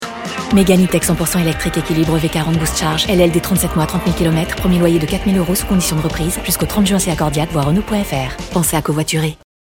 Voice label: Pub Radio Mentions legales; RENAULT Megan

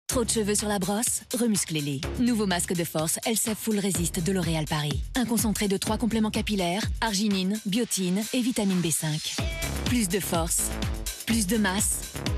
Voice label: Pub TV; Elseve FullResist; L Oreal Paris

